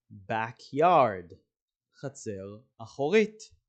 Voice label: "backyard" – "חצר אחורית"